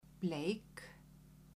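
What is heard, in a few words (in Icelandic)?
"bleik", Icelandic, female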